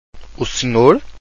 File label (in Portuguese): "o senhor", Portuguese vowels